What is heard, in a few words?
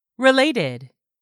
"RELATED", English; 3000 words